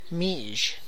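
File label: Tlacochahuaya Zapotec; Mixe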